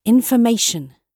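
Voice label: "information" spoken, British English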